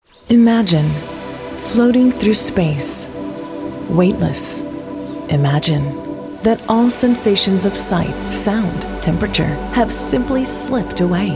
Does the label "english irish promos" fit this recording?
No